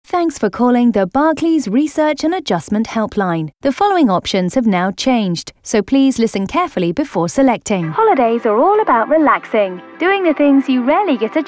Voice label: On Hold